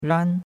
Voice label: "ran1", chinois; Cours de Chinois